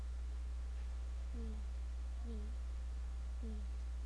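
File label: "lead", spoken word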